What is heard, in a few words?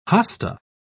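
"hosta", British English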